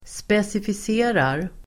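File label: "specificerar", Swedish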